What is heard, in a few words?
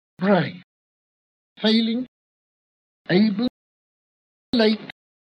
bbc, accents sbe; hg wells; bbc 1931; russia